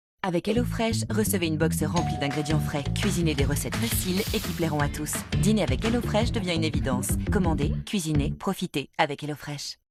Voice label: Commercial